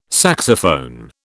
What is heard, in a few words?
"saxophone", British